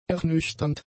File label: "ernüchternd", German; adjectives